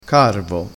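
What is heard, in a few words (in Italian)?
"carvo", dialetto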